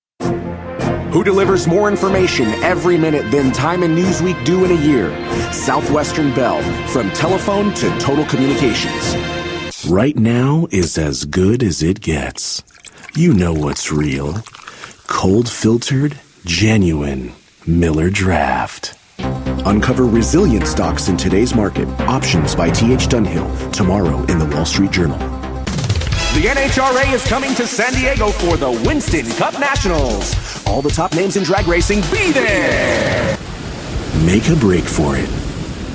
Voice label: English